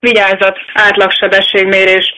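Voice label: warning, Hungarian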